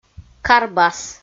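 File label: "карбас" Komi, female; kpv audio dict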